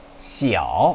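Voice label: "xiao3", Chinese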